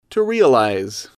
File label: "to realize", English